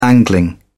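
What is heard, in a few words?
"angling", British English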